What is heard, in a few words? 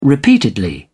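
"repeatedly", British English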